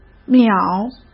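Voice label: "秒", Mandarin Chinese; hsk; chinese-flashcards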